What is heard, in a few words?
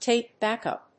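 "tape backup", English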